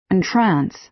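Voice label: "entrance", American English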